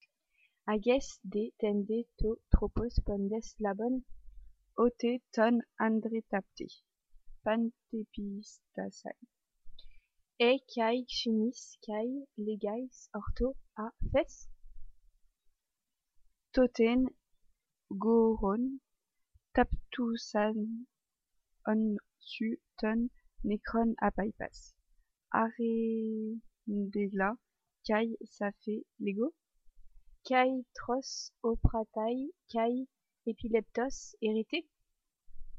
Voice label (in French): dialogue grec